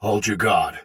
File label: Patrol Soldier Sword Agent Male, Battle; Dialog; Barks; Melee; Sinecure